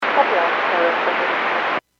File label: Airports 122.7, Radio; KSTE